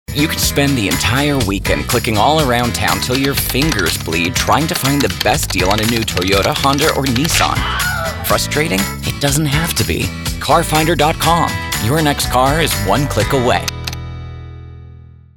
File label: VO Affable Relatable Young Dad; Voice Over Read Styles